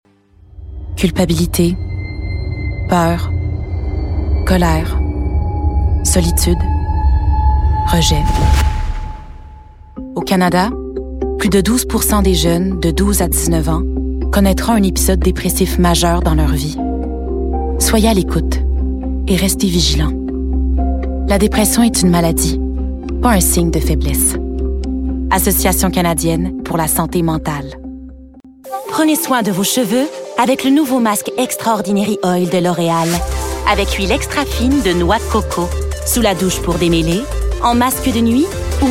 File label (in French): Annonceur